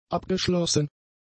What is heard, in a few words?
"abgeschlossen", German; adjectives